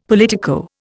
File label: "politico", Indian; Dictionary